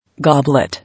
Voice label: "Goblet", English